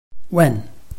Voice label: "wen4", Chinese

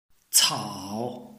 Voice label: "cao3", Chinese

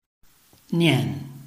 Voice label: "nian4", Chinese

